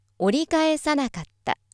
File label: female